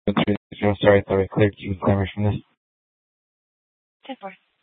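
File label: Police Department Dispatch, scanner; Mesa PD; 3 Red Mnt Dispatch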